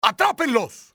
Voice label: get them, spanish soldier; enemy; XBOX

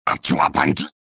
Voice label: anger, trandoshan; XBOX

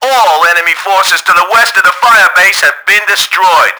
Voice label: west attack cleared, english; Battle Engine Aquila; XBOX

